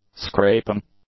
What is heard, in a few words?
"scraping", English; nouns